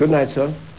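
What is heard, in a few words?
good night sir, Male voice, "Good night, Sir."; replacement event sounds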